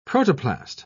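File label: "protoplast", British English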